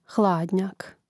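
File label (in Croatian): "hladnjak", hrvatski; mreznik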